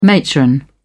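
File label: "matron", British English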